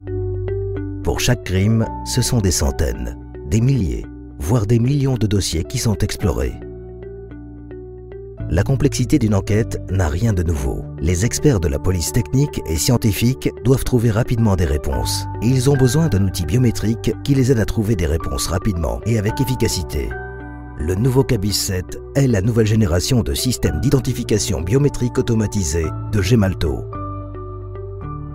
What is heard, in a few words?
french movie trailers